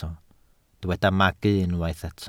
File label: "magu", Welsh